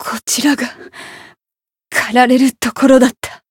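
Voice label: win barely, Japanese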